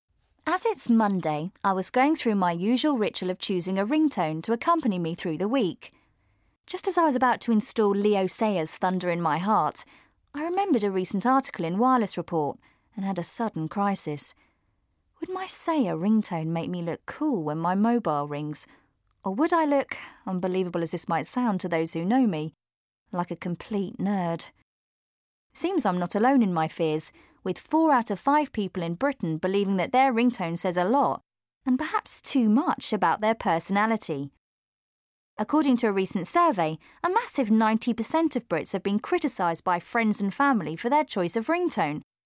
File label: documentary; Ringtone – Narrative